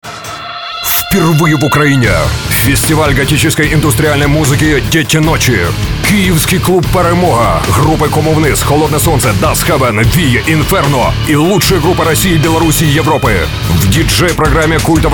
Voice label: radio trailer, rus; Deti Nochi Chornarada